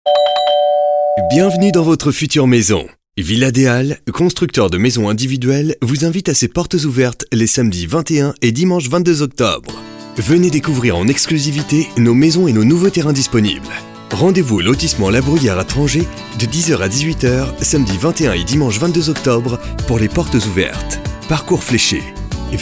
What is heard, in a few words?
French Voice Over Energetic short advertisement